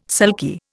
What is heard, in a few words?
"sulky", Indian